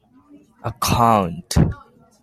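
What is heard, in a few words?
"account", Japanese, male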